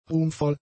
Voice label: "ruhmvoll", German; adjectives